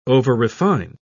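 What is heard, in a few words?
"overrefine", British English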